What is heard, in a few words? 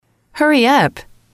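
hurry up, English, female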